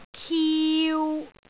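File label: "kiu3", Cantonese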